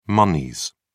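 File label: "moneys", English, British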